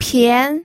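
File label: "pian", Mandarin Chinese, second tone; pinyin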